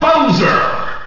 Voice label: announcer; bowser; ssbm